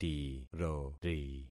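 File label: "tirori", concatenated syllables; Affixing experiment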